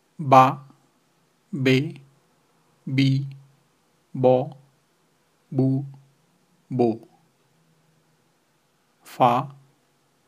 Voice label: ba be bi bo bu bou fa fe fi fu fo fou, Rohingya language